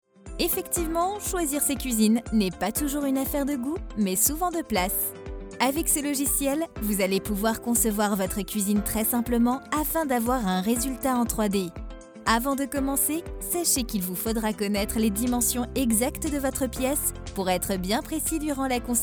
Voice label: E learning cuisine; Ikea

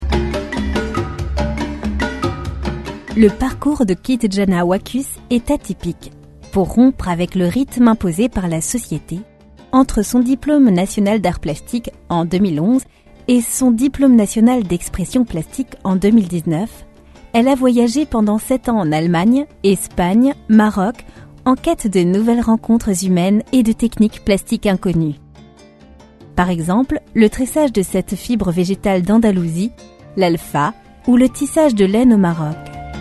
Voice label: Documentaire Art Comtemporain; Narration, voix chaleureuse; L'oeil du Lézard

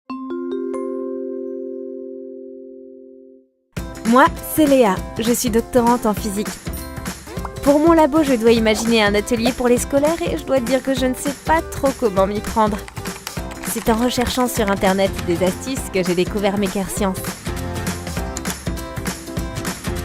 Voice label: Institutionnel Voix Off feminine; Voix d'étudiante, jeune, naturelle; Maker Sciences

